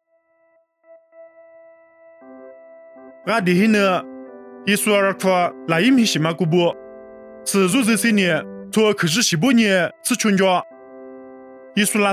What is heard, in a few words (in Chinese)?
凉山彝语; 以色列拿因; nuosu